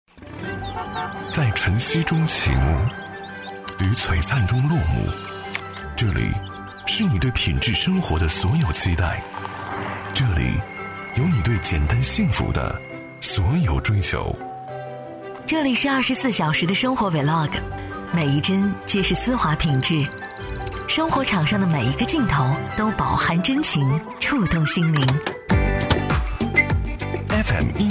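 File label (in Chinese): 男女搭配-黑龙江生活广播频道声-怦然心动的生活; 男29女29搭配-黑龙江生活广播频道声-怦然心动的生活; 女29-大气磁性; 质感磁性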